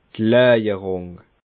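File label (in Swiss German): "laawerung", Alsatian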